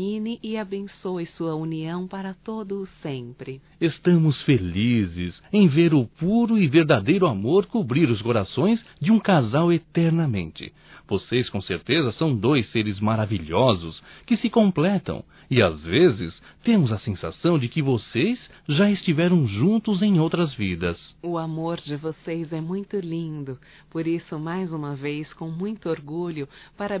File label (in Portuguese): Casamento Amigo Duas Vozes; Casamentos; fem; Telemensagens 2017